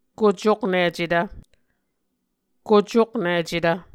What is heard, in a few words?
"kocoknicetv", Muscogee